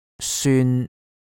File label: "syun1", cantonese